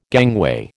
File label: "gangway", American